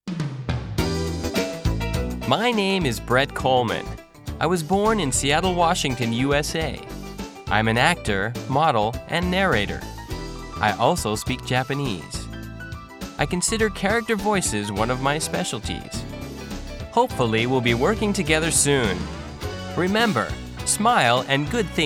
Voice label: Voice Intro English